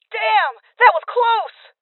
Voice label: Voices Armor Shot Female; rebel cops 2019 gamerip